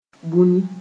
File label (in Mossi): "bũni", moore